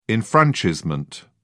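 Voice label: "enfranchisement", British English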